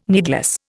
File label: "needless", Indian